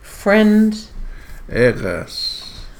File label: Friend Female